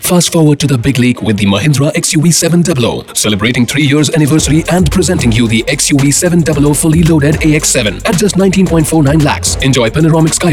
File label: MVO; Mahindra